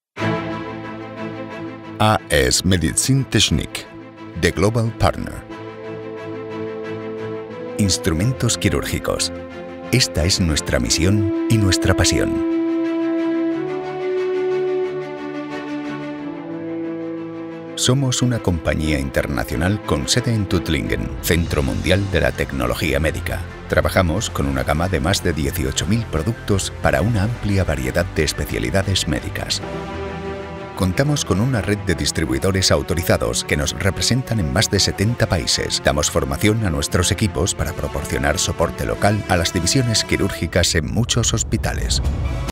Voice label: Medical Narrations, deep, trustworthy, confident Male Voice; Publicidad; Az Medizintechnik; As Medizintechnik